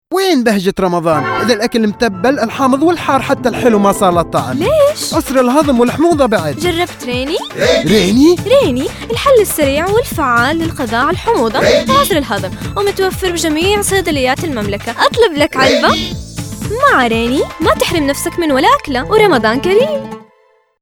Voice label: male arabic, ivr voice over; ivr voice over supplying